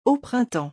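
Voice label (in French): "au printemps", French; vocabulaire; le lexique des vacances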